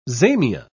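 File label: "zamia", British English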